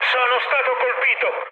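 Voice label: Italian aircraft damaged mood med, speech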